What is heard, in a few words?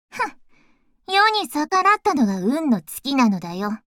Voice victory